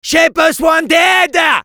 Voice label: Medic help me, fr; "J'ai besoin d'aiiide !"

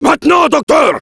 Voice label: Heavy activate charge, fr; "Maintenant, docteur !"

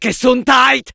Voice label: Medic battle cry, fr; Gesundheit !

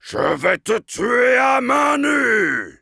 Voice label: Heavy melee dare, fr

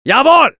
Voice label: Medic yes, fr; Jawohl !

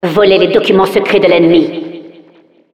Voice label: Announcer capture intel, fr

